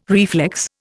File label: "reflex", Indian